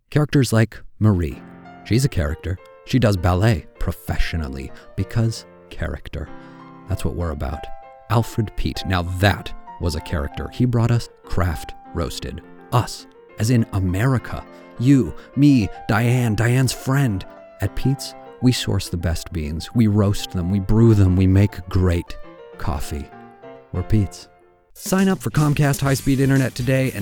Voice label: Commercial VO